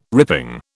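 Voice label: "ripping", British English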